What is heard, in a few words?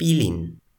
"pilin", Toki Pona